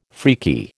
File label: "freaky", American